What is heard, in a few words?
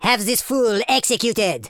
you'll regret that, Super Villain; speech